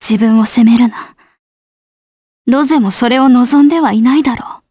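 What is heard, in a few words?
voice Dia, voices heroes, Japanese; Valance; kingsraid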